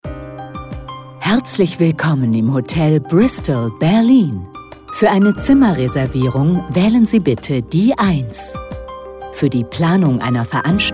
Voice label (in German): Ansage DE, telefonansage hotel; Bristol Berlin